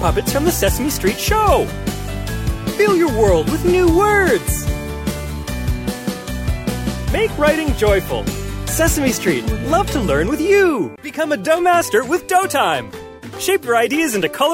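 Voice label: American English male TV commercial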